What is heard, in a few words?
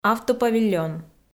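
"автопавильон", Komi, female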